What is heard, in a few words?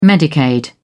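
"medicaid", English, British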